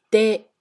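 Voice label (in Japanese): "で", Japanese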